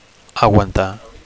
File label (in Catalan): "aguantar", Catalan; 1. to support; 2. to withstand